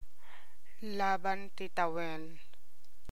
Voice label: Ilocano